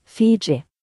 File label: "fiji", nn; gcompris; geography